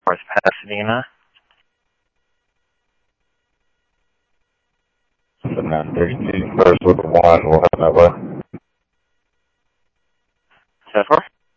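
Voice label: Mesa Simulcast Police Department; MESA PD 2 Centrl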